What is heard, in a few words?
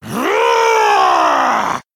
Male Night Elf TRIGGER FURY